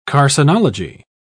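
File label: "carcinology", British English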